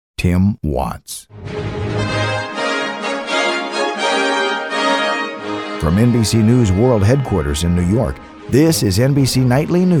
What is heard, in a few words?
Nightly News Open; NBC; Auditions